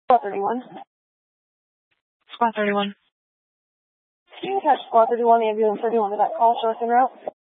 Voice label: Grand Junction Fire Department Dispatch